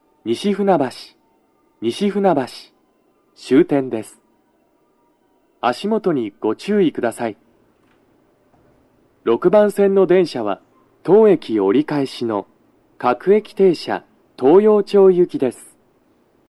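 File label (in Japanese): 到着放送 男声, 各駅停車 東陽町行き; 収録者:こたけ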